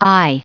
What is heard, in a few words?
"i", en-us recite